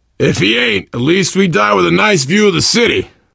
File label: world hospital, player survivor voice biker; source engine; left 4 dead 2